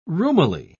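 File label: "roomily", English, British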